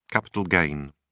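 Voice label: "capital gain", British English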